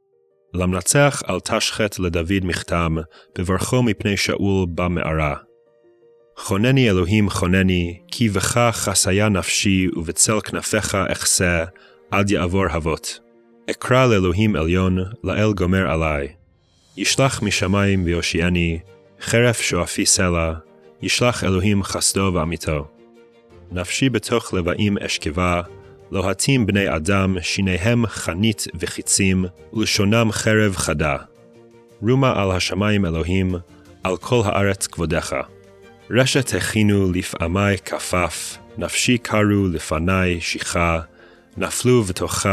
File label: poetry and prayer psalm hebrew